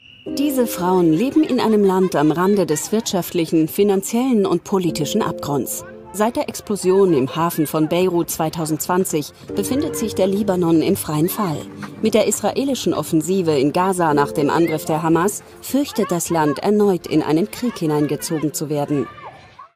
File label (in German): doku kommentar souveraen informativ; arte tracks modeshootings